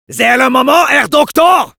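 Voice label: Medic activate charge, French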